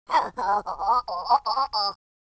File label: scared